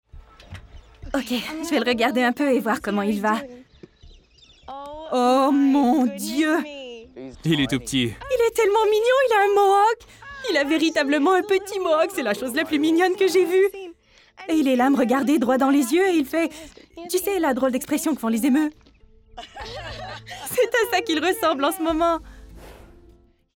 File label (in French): surimpression, Enjouée - Diction impeccable - Français normatif; Wild Adult Emus